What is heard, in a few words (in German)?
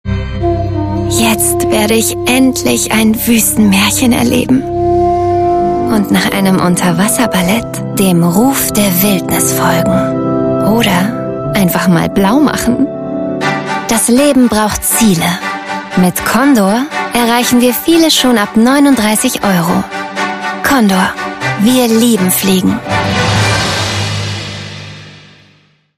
Deutsch; Condor